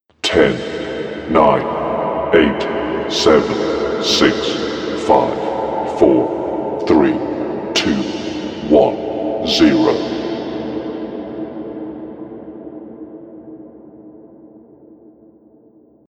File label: Deep Countdown 10-1 Ambience, Recorded Voice